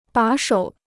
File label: "把手", Chinese